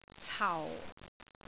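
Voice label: "cau4", Cantonese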